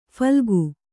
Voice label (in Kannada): "ಫಲ್ಗು", Kannada